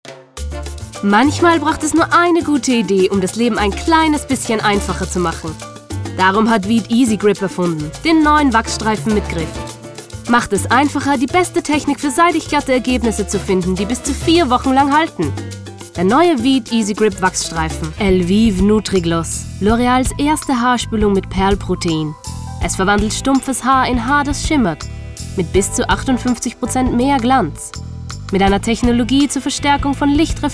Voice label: female austrian german austrian german and english with austrian accent